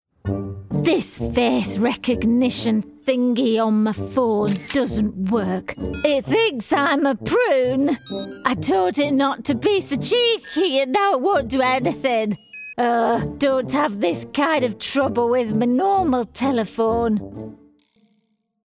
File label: Animation Northern Granny